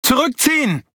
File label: Speech Quick Commands Back, DE; World Of Warships